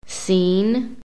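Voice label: "seen", American English; PHONETIC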